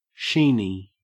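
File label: "sheeny", Australian English; pronunciations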